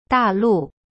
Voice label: "大陆 da lu", Chinese; cyberchinese

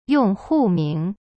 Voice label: "yong4hu4ming2", Chinese; cyberchinese

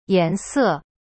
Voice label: "颜色" yan se, chinese; cyberchinese

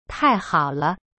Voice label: "太好了" tai hao le, chinese

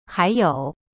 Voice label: "还有" hai you, chinese; cyberchinese

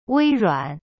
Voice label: "wei1ruan3", Chinese; cyberchinese

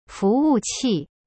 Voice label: "服务器" fu wu qi, chinese; cyberchinese

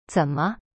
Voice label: "怎么" zen me, chinese

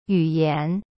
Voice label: "yu3yan2", Chinese; cyberchinese

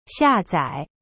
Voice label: "下载" xia zai, chinese; cyberchinese

